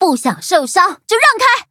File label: battle, zh-hant